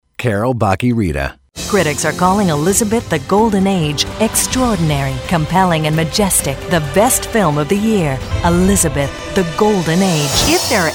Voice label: women trailers